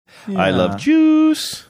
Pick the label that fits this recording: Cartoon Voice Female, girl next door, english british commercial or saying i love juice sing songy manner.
saying i love juice sing songy manner